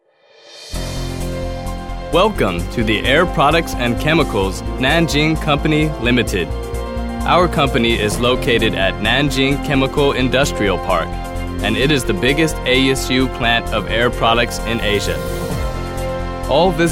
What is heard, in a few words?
boy; english